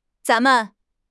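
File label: "zan2 men5", Mandarin Chinese; HSK4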